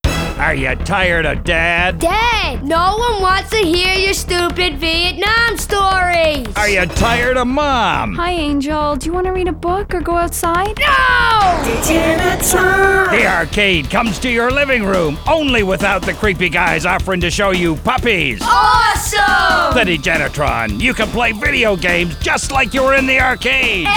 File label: Commercial; Wildstyle Pirate Radio; Degenerator